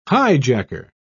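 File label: "highjacker", English, British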